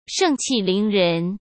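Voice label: "盛气凌人", Mandarin Chinese; hsk; chinese-flashcards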